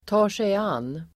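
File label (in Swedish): "tar sig an", Swedish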